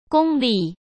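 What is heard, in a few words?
"功力", Mandarin Chinese; hsk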